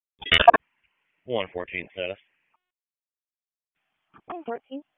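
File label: Police Dispatch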